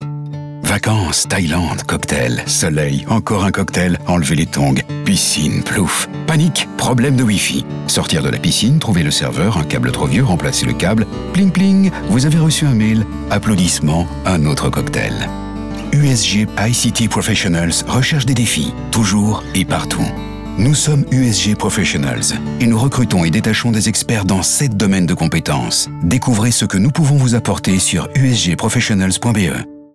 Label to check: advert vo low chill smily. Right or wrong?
Right